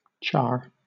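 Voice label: "char", British English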